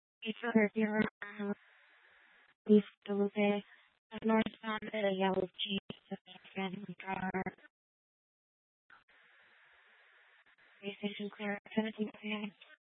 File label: Tempe PD, scanner; Rgnl Wireless Co-op (RWC)